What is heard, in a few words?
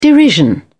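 "derision", English, British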